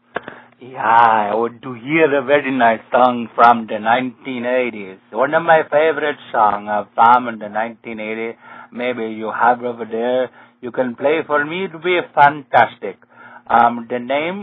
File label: request line phone call